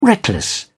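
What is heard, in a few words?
"reckless", British English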